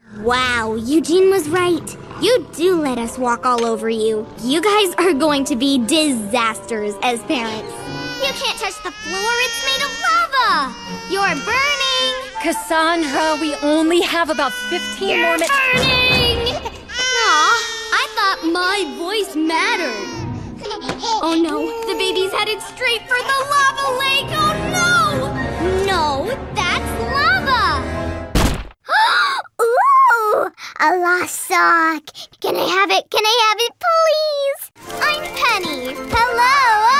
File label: Animation